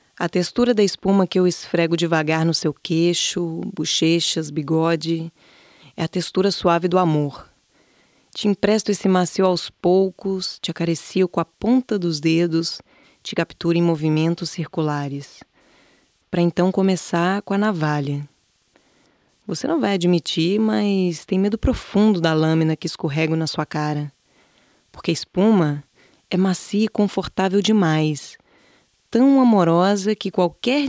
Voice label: Narrativa

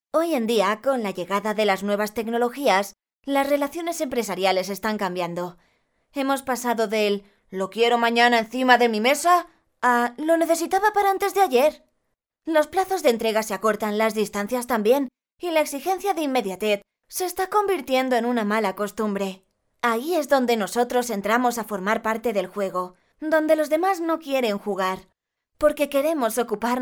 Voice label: corporativa

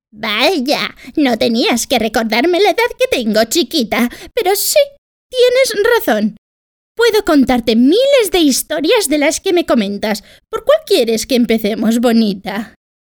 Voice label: VIDEOJUEGOS ABUELA

